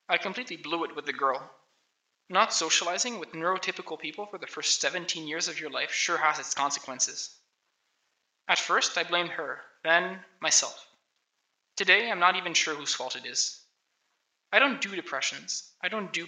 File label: voice, narration